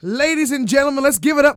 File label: DIALOG, R&B Dance, FEM. SPOKEN